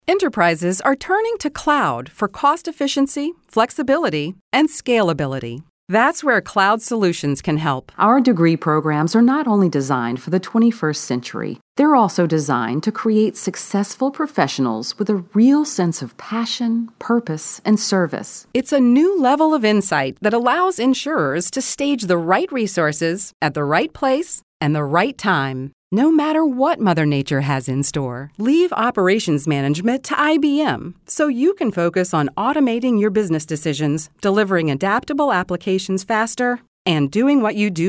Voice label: narration, women